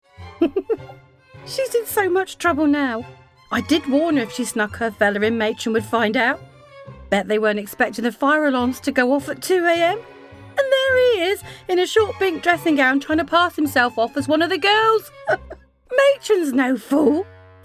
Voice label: Audio Drama